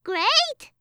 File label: great!, 音ゲー風